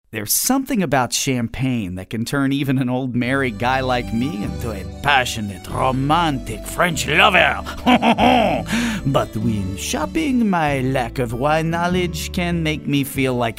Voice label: french character

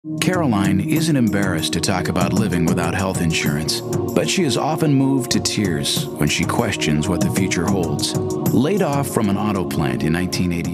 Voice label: concerned

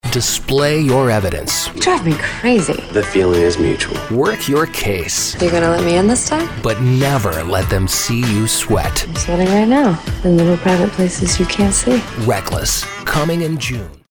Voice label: Television Promo

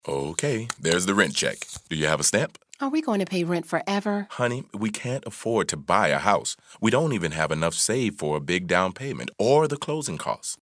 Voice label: guy next door

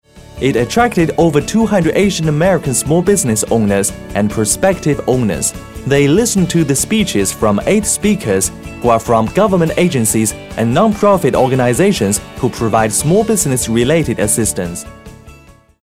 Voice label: English-Small Business

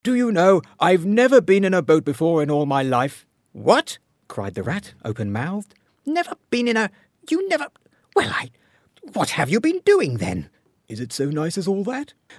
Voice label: storyteller

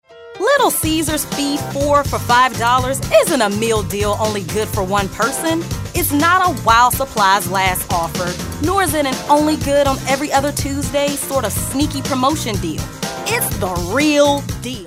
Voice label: upbeat

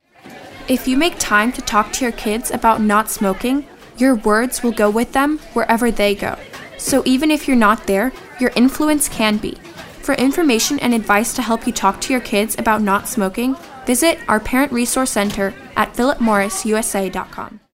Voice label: kid next door

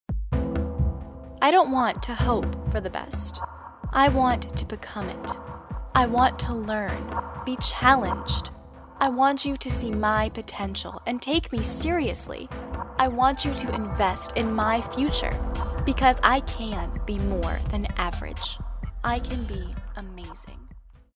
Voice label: thoughtful

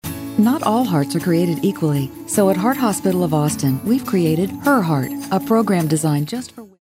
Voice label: friendly

